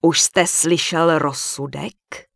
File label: Human Female Warrior NPC Farewell; wowpreklad; czwow